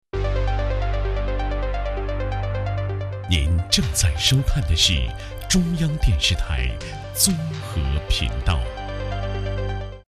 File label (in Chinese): 男25 CCTV1综合频道包装, 国语男声配音, 央视大气